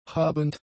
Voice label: "habend", German; adjectives